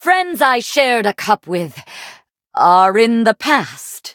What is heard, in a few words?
loading, en; cookie run